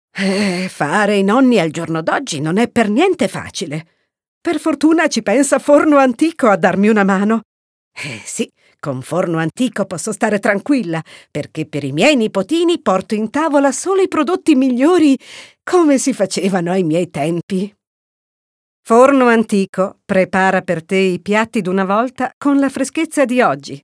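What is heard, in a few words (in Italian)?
pubblicità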